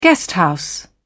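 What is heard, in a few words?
"guesthouse", British English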